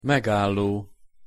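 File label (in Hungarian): "megálló", Hungarian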